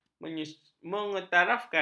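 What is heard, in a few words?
"menyetarafkan", Malay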